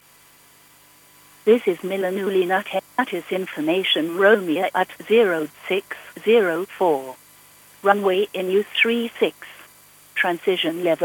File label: ATIS Milano